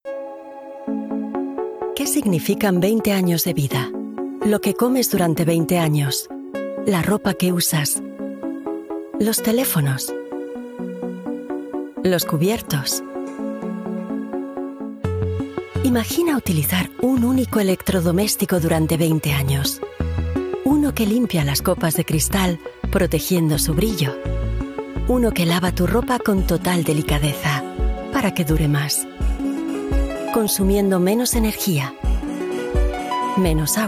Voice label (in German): werbung spanisch